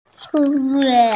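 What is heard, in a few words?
"shu4ye4", Chinese, F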